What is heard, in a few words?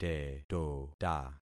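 "tetota", concatenated syllables; Affixing experiment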